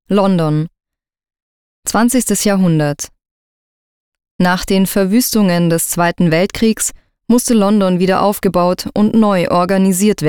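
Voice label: german female narration; voice demos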